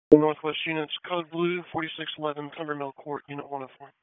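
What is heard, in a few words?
Police Southwest District Disp, radio; Raleigh; wake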